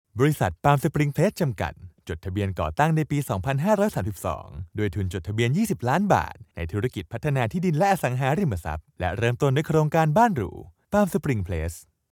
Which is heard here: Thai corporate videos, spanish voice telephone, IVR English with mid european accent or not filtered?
Thai corporate videos